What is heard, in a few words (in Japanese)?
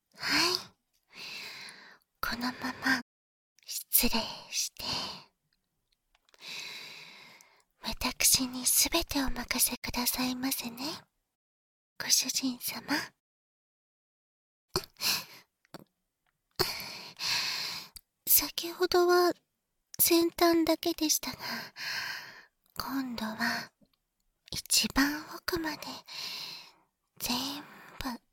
雨の中の夜伽（バイノーラルマイク 効果音なし）, ASMR